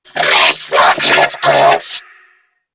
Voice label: Attack Grineer Female; New War; Narmer